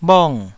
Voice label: "bhong4", taiwanese Syllables